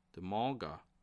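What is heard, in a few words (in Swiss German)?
"manga", Bas Rhin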